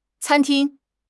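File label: "can1 ting1", Chinese; HSK4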